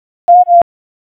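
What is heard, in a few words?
Police Southeast District Dispatch; Raleigh; wake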